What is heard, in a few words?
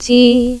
Solfege, Claire's Voice; Opcode Systems